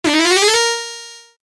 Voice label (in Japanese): 呪文を唱える